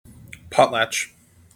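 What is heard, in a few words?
"potlatch", US English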